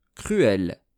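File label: "cruel", French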